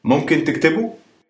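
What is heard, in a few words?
"write", Arabic, Egyptian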